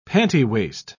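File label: "pantywaist", British English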